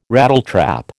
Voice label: "Rattletrap", American